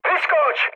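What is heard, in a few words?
bailout, Czech, mood med; War Thunder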